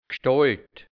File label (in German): "Gschtolt", Hianzisch, Mundart, Burgenland; Gestalt